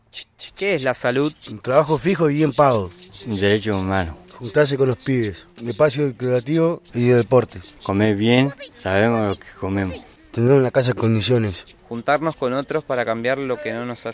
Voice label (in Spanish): SPOT